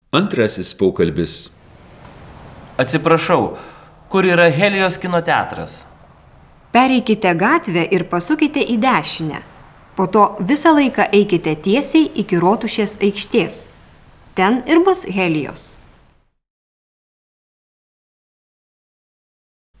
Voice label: Dialog